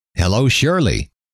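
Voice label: hello, male; trainer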